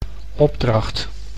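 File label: "opdracht", Dutch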